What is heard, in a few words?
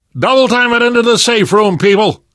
stay together inside, left4dead survivor voice namvet